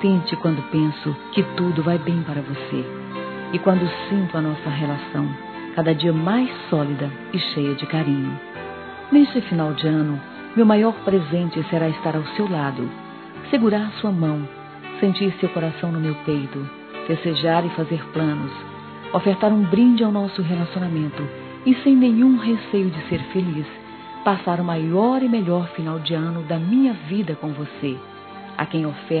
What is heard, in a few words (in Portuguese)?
Natal e Ano Novo esposo feminino; Telemensagens; Misto